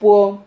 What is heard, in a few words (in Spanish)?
"puo", Silabas